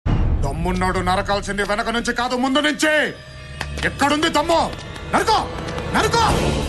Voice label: Dialogue, Telugu; NTR; Dammu

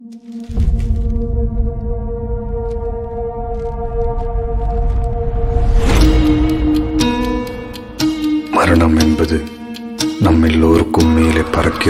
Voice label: Villain Dialogue; Ratsasan; Tamil

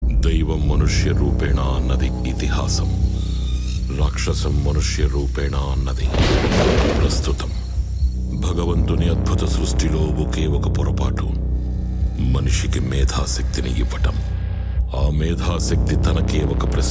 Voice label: Dialogue Ringtone; Zombie Reddy; First Bite; Telugu

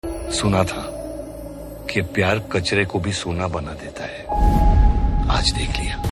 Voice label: Trailer Dialogue Ringtone; Sadak 2; Sanjay Dutt

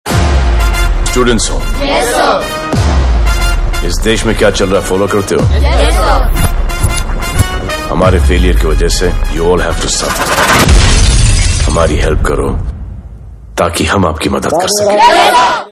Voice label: Dialogue, Hindi; Salman Khan; Radhe Promo

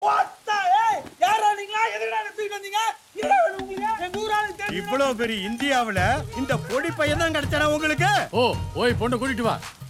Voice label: Trailer Dialogue Ringtone, Tamil; Galatta Kalyaanam

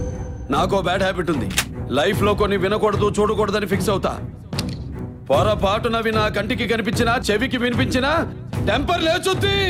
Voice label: Dialogue, Telugu; Legend; Nako Bad Habbit Undi

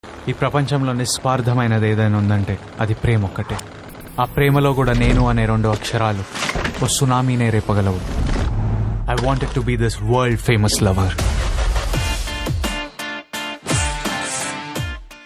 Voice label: Trailer Dialogue Ringtone, Telugu; World Famous Lover; Vijay

